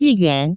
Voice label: "riyuan", Taiwan Chinese

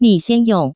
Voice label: ni xian yong, Taiwan Mandarin

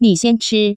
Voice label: ni xian chi, Taiwan Mandarin

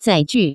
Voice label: "zaiju", Taiwan Chinese; baoshui

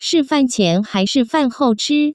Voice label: "fan hou", Chinese; pharmacy; yaoju; zhengzhuang

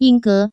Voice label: "yingge", Chinese; taipei daytrip; sanxia; jinguashi

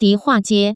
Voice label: di hua, Chinese

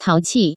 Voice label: "taoqi", Chinese; taipei daytrip; sanxia; yingge; jinguashi

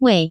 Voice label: "wei", Chinese, phone call; Taiwan

